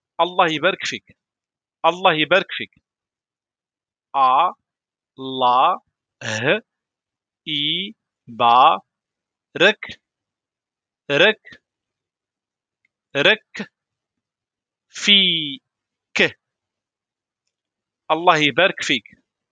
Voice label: how to answer to wish of a happy celebration in moroccan arabic in morocco